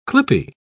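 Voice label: "clippie", British English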